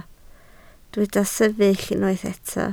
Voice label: "sefyll", Welsh